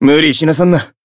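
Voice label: heal, Japanese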